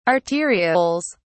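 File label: "arterioles", English, British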